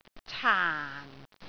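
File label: "caan4", Cantonese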